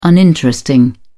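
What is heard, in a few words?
"uninteresting", British English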